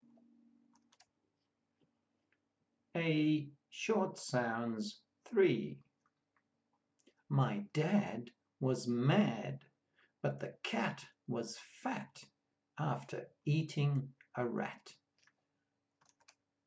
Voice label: A short sounds, pronunciation of australian english